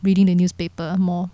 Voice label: Singapore English